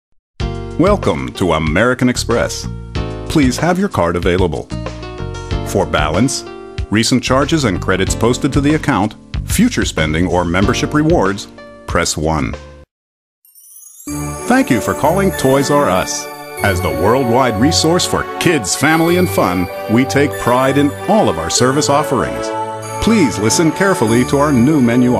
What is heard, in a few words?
IVR